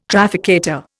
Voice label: "trafficator", Indian